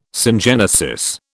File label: "syngenesis", British